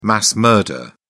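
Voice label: "mass murder", British English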